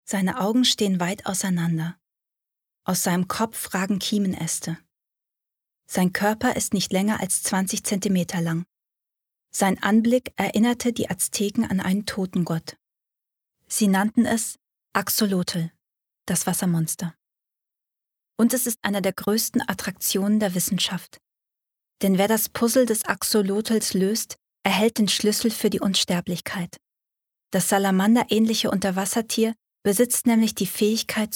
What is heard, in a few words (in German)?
Doku